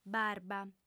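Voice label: "barba", female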